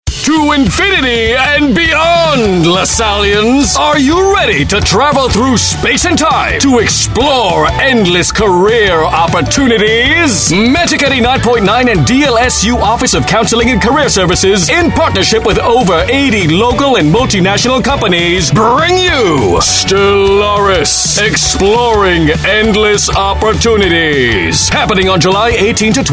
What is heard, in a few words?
Radio Announcement; DLSU JOB EXPO STELLARIS